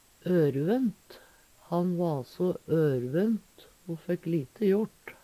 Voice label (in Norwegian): orvont, Numedalsmål; ørvønt; Eksempel på bruk Han va so ørvønt o fekk lite jort.